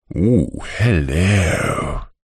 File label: human voice male english saucy flirtatious ohh hello